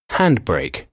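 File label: "handbrake", English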